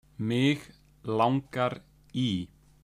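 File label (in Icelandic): "miglangari", Icelandic; matarhefti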